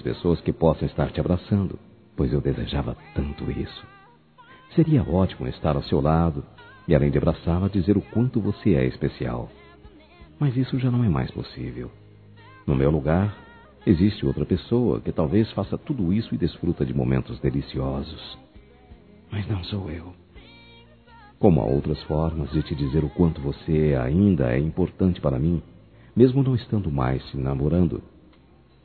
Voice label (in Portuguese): Aniversário Ex Namorada Masculino; Aniversários; ANIVERSARIO romantico